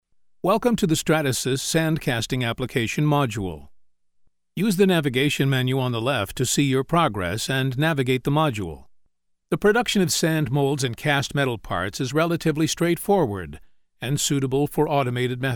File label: Tutorial Elearning, en-US